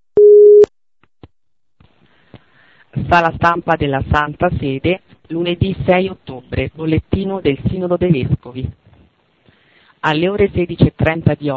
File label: Synod phone summary